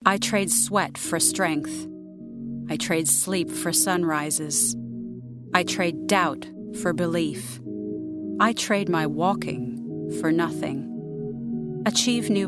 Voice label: English US commercial

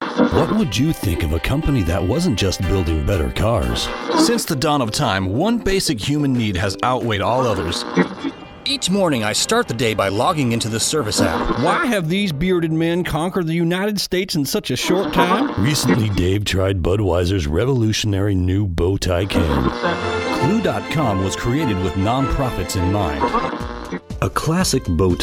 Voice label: English US commercial

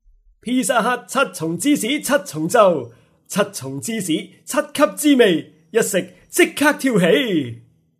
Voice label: General Cantonese; GoLocalise

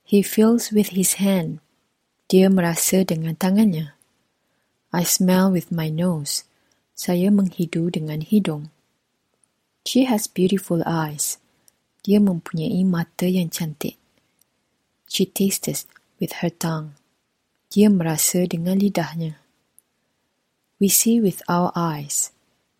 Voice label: English Malay; Golocalise

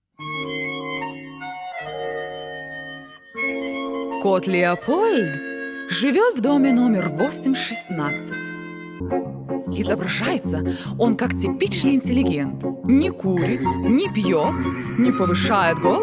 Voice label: Russian General

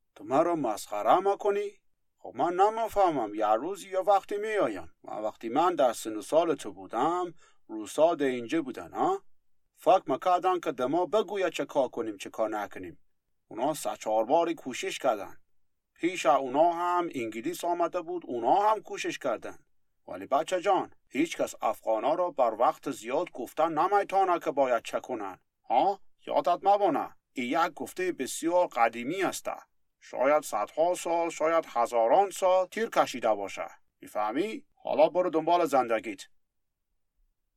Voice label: Audiobook Dari; GoLocalise

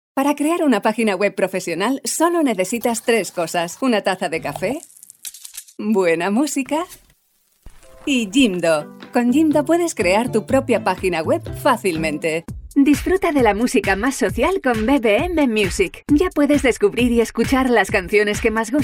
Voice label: Commercial

